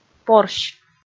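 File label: "порсь", Komi, female; kpv audio dict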